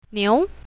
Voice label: "niu2", Mandarin; animals

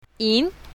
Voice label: "yin2", Mandarin; colors

